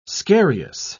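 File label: "scarious", British English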